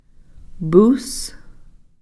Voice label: "pus", annihilated

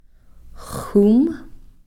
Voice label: "xum", dry

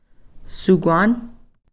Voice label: "sukwan", root basket

